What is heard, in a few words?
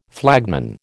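"flagman", American English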